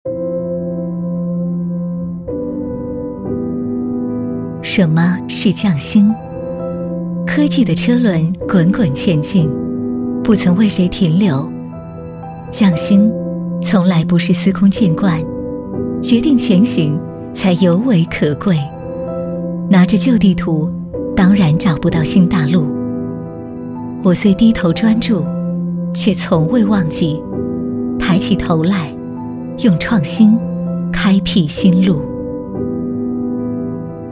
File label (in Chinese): 女 走心旁白 物业; 金辉物业